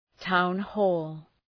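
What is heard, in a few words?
"town hall", English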